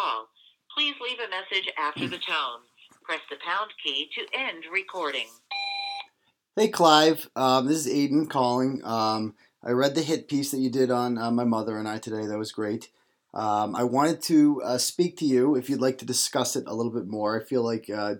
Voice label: Voicemail